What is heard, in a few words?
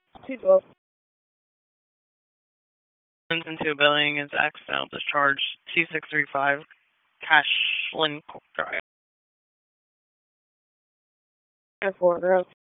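Police District Dispatch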